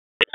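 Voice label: EMS Dispatch; wake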